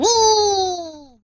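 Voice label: "Flyaway", British; hedgewars; Default uk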